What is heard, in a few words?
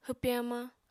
"hwpe hamañ", Apatani